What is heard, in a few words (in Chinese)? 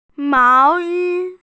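"mao2yi1", Chinese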